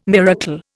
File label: "miracle", Indian